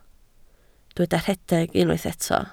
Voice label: "rhedeg", Welsh